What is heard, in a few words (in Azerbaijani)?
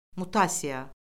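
"mutasiya", Azerbaijani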